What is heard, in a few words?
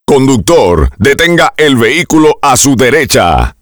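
Pull over right, Spanish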